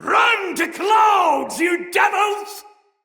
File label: turned tides, soldier male; Dendrit